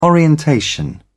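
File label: "orientation", British English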